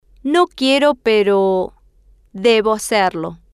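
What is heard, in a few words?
no quiero pe debo hacerlo, Spanish, female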